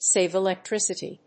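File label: "save electricity", English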